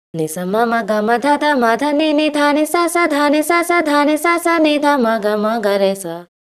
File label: pitch shift voice, digidesign pro tools ts -5